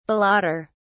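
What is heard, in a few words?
"balloter", British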